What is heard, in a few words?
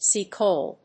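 "sea coal", English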